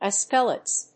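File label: "ice pellets", English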